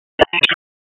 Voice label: Judicial, p25; WSO Judicial 1; wake